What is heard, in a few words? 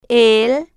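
"el" Spanish, female, slow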